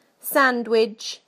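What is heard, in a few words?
"sandwich", British English; PictureDictionary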